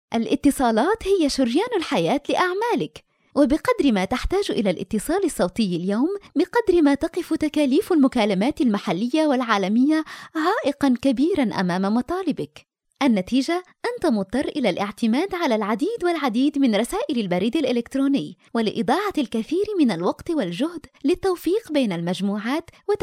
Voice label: female arabic voice over upbeat

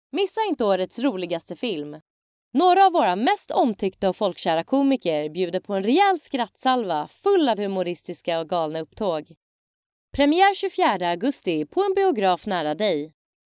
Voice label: female swedish voice over sales

